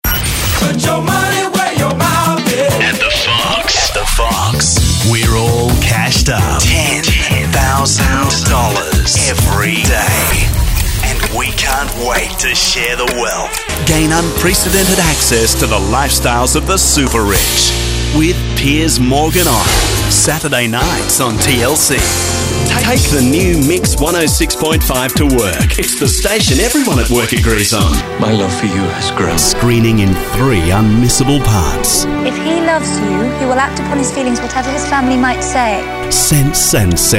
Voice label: tv and radio promos